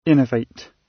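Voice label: "innovate", British English; Design and innovation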